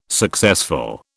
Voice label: "successful", British

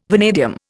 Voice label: "vanadium", Indian

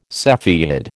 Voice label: "cepheid", American

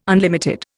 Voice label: "unlimited", Indian

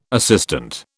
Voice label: "assistant", British

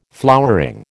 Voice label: "flowering", American

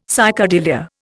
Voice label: "psychedelia", Indian; Dictionary

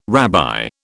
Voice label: "rabbi", British

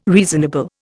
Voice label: "reasonable", Indian

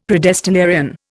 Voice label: "predestinarian", Indian; Dictionary

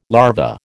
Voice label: "larva", American

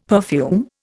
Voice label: "perfume", Indian; Dictionary

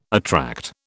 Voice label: "attract", British

